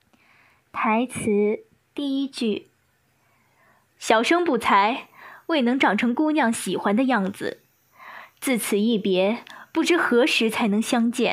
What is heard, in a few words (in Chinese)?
少女音